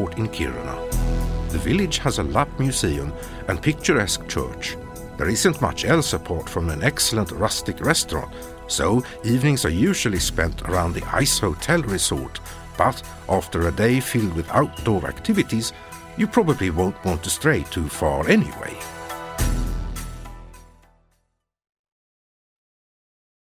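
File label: Swedish, Corporate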